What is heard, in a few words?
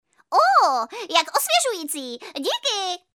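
female Czech drama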